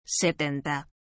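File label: "setenta", Spanish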